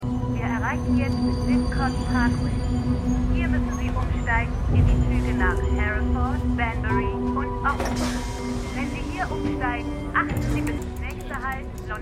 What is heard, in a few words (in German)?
Zugbegleiterin; Suspicion